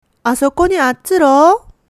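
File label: "attsurou", Japanese dialect, woman; hogen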